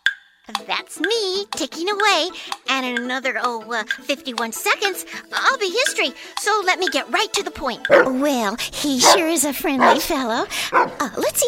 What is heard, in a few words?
Animation